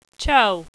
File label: "choo", Swahili